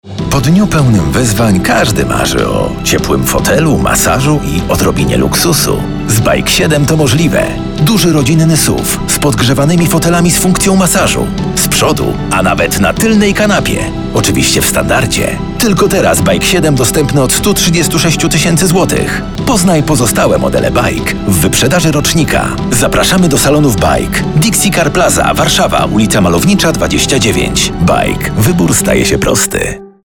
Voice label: reklama wyprzedaz baic 7

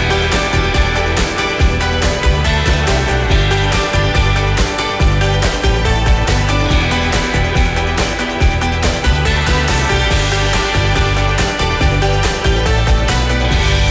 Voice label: reklama meriva

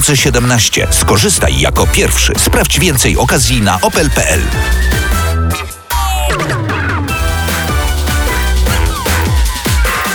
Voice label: reklama corsa

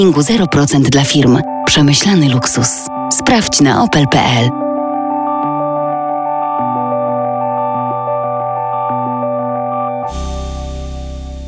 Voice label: reklama insignia onstar

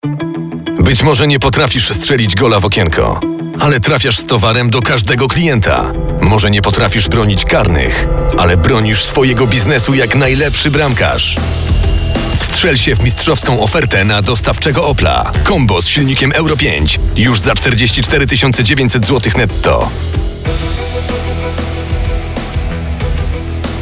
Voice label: reklama, combo

